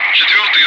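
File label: Russian calm; Wingman; DCS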